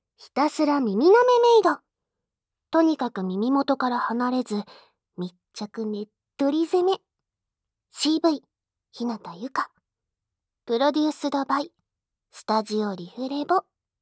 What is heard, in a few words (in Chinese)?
标题朗诵; 无SE; ひたすら耳舐めメイド ～とにかく耳元から離れず、密着ネットリ攻め!～; KU100